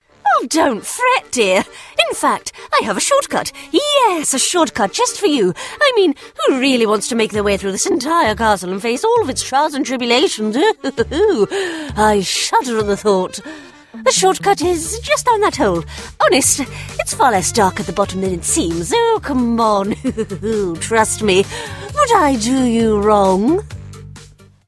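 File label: british RP mischievous imp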